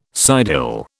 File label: "sidehill", British